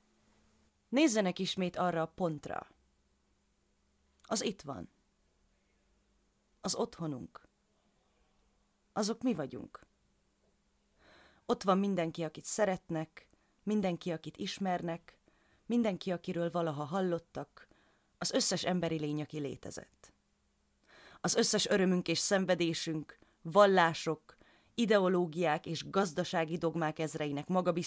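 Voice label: Hungarian, General; voice demos; GoLocalise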